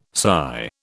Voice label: "sigh", British

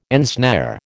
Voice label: "ensnare", American

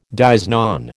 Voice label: "dies non", American

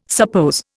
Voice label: "suppose", Indian

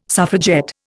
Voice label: "suffragette", Indian

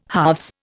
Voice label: "halves", Indian

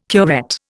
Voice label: "curette", Indian

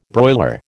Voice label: "broiler", American

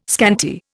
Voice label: "scanty", Indian

